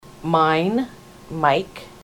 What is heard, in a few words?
mine, ontario